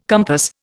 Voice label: "compass", Indian